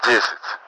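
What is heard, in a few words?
ten, Russian; Mi-8MT; DCS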